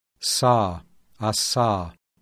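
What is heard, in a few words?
voiceless alveolar fricative; IPA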